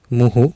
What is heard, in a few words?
"muhu", Sanskrit